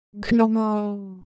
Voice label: Ewe Shape, ideophones

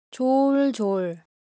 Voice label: Korean, Ideo Phones

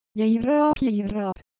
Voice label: Korean, Ideo Phones; Shape

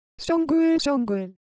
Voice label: Korean Color Visual

